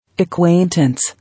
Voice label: "Acquaintance" English